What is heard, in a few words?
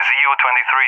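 "ZU-23", English speech; Allied Flight; AirDefence; DCS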